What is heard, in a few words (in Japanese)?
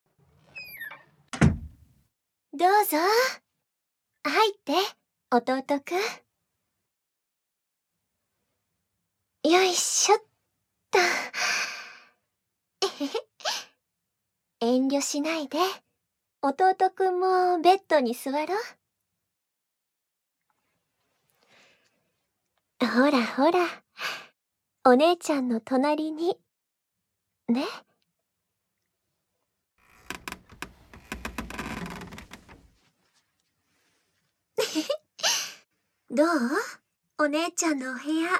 耳元でねっとりささやき; 耳元でねっとりささやき性教育・甘勃ちおち〇ちんに精通フェラ